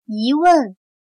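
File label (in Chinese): "疑问", Chinese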